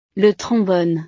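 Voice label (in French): "le trombone", French; el clip; utiles escolares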